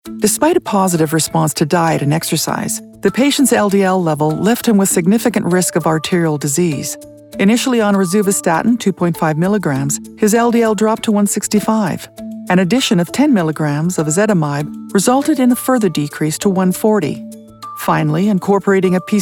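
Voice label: Medical Voiceover, female voice; Physician notes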